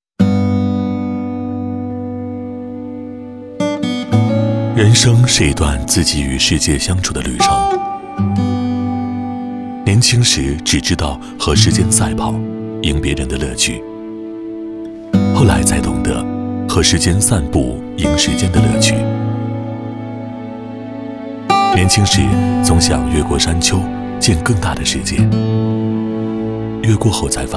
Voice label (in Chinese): 男, 亲切舒缓; 香港置地约克郡提案